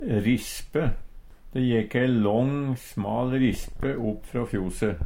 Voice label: "rispe", Numedalsmål; De jekk ei lång smal rispe opp frå fjose.

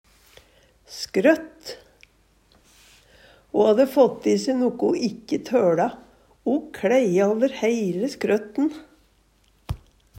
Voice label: "skrøtt", Numedalsmål

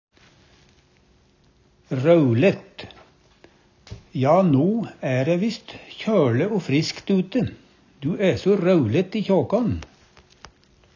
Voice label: "raulett", Numedalsmål; Ja, no æ ræ visst kjøLe o friskt ute, du æ so raulett i kjåkån.; Adjektiv

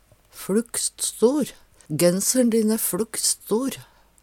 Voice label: "fLukst stor", Numedalsmål; Genser`n din æ fLukst stor.

